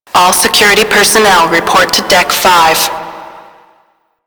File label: Security Peronnel, Ship Voice; Warframe